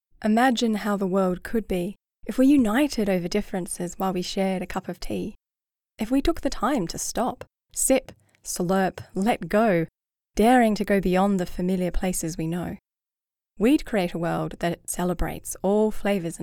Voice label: mainstream ad

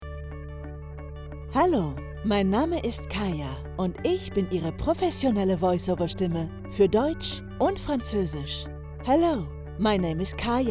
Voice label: presentation in german french english

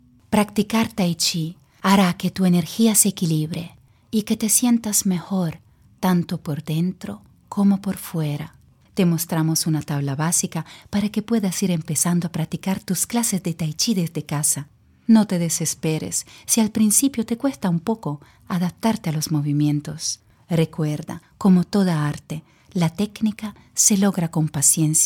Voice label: SPA Tai Chi E-Learning

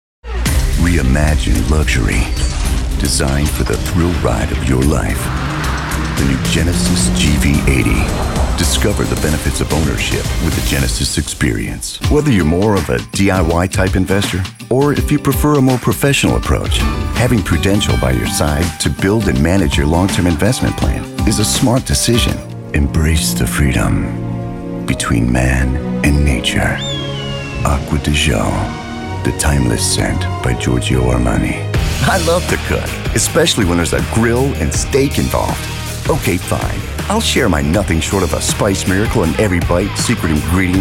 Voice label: Commercials

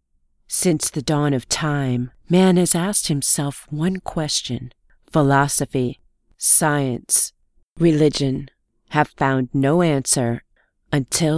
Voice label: Trailer

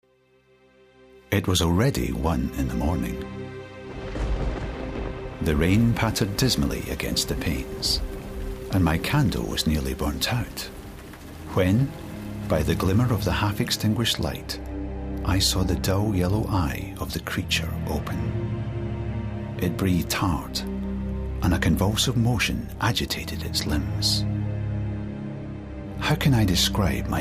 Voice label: Audiobook

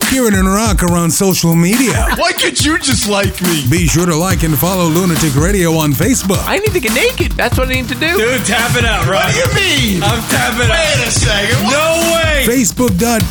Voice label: Podcast

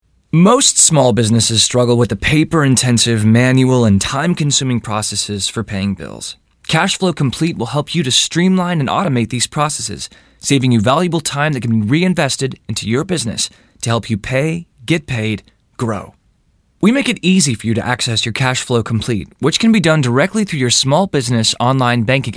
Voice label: Explainer Video VO

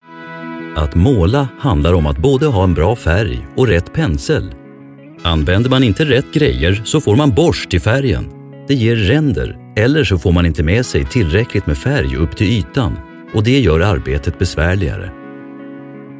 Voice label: in Swedish Infomercial

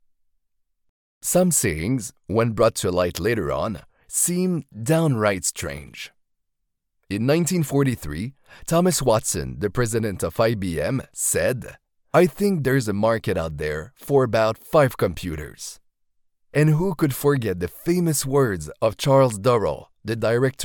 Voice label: Voice acting

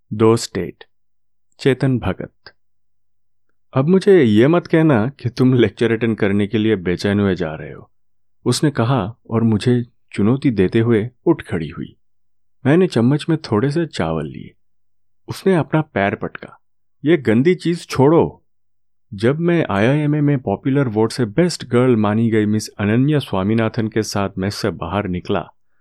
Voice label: Hindi Audiobook

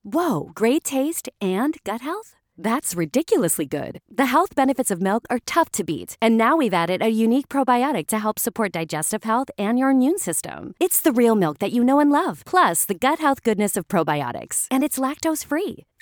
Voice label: Digital Commercial

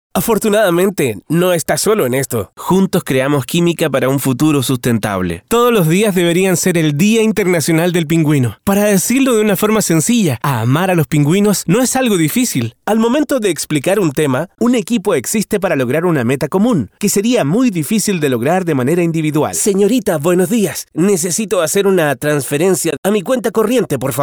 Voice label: VO Voice

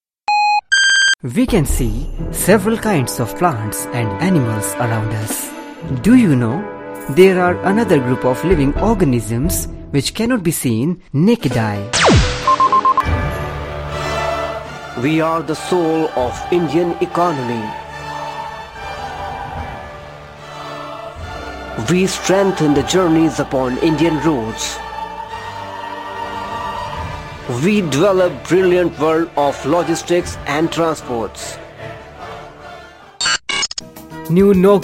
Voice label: ENGLISH

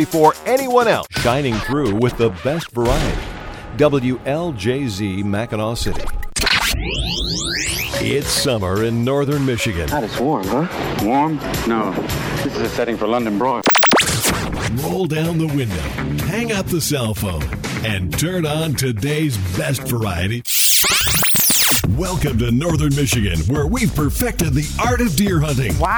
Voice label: Station Imaging

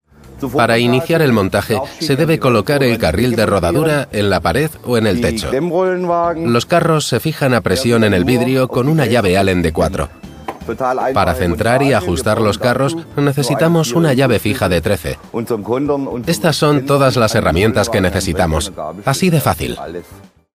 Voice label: Explainer video Spanish

